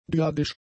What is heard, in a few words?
"dyadisch", german; adjectives